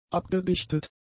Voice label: "abgedichtet", German; adjectives

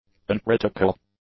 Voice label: "uncritical", English; adjectives

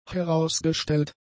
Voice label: "herausgestellt", German; adjectives

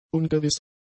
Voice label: "ungewiss", German; adjectives

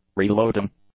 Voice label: "reloading", English; nouns

